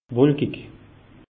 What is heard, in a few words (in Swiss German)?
"wolkig", alsace; meteo